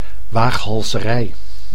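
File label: "waaghalzerij", Dutch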